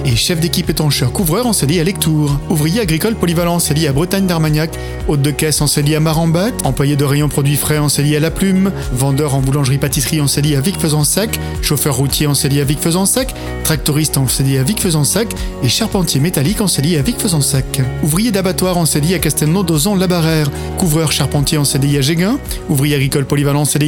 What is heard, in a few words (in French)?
PUB FRANCE TRAVAIL CDI